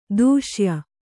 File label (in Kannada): "ದೇಶೀಯ", Kannada